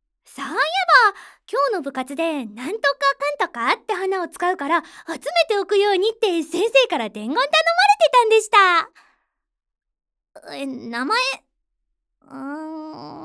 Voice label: 天然女子

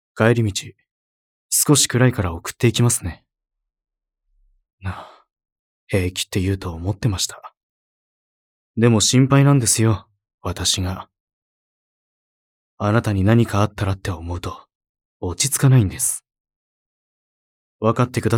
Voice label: 敬語クール

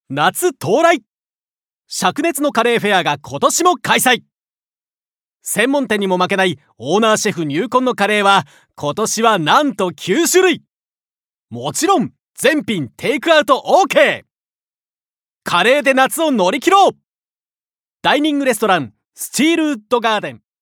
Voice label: 明るめ

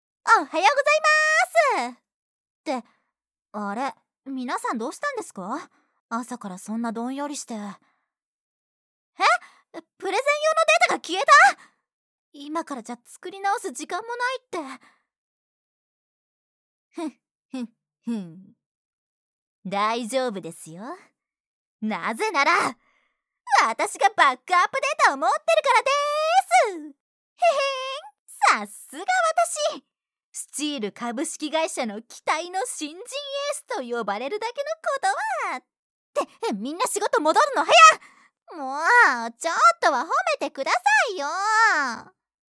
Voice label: ボイスサプル（20代女性）

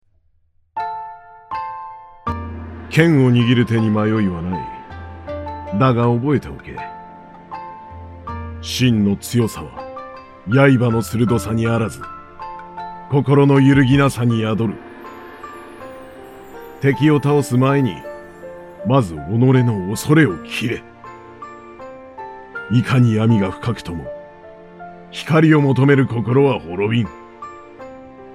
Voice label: 渋カッコいい系

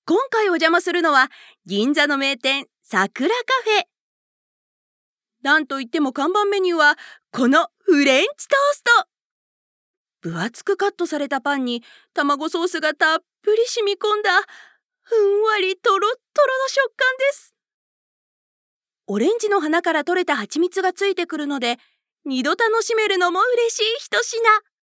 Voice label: ナレーション; 女性

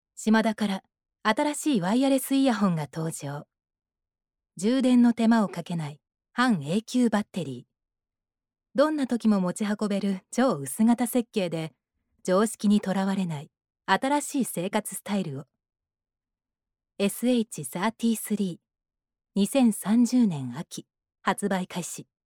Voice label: ナレーション

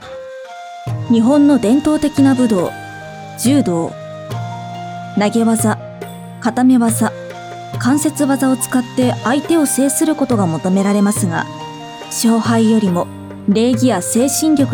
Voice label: NA クール

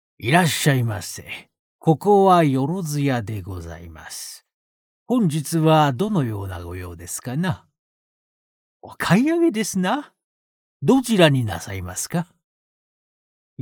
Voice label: テンプレ道具屋爺

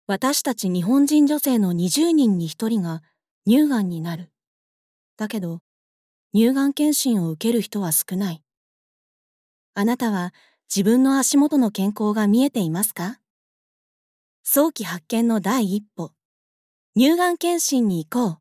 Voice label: ナレーション

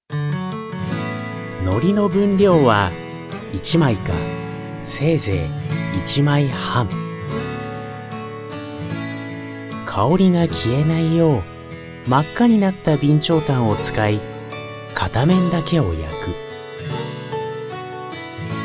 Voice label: ナレーション

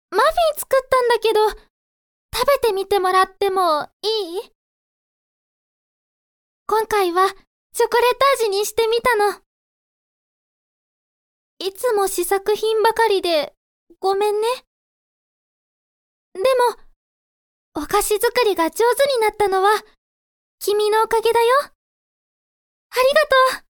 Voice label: 清楚系ヒロイン

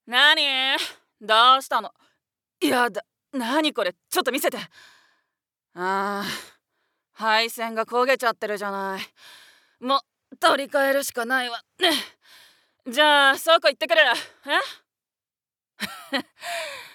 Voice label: 外画

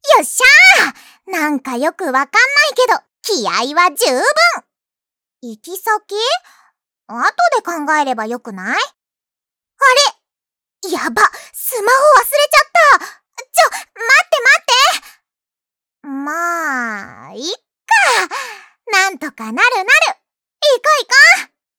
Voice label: 活発楽天的

